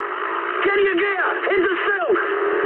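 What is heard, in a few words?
English bailout mood high; War Thunder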